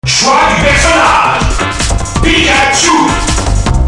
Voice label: announcer french